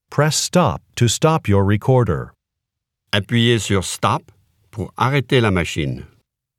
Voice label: french directions end of part b